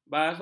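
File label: "basung", Malay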